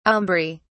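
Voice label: "aumbry", British English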